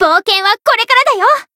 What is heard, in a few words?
Voice chant; nona